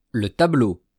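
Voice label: "le tableau", French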